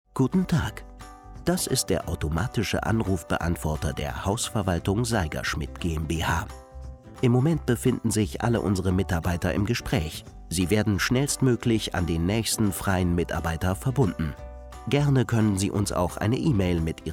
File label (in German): telefonansage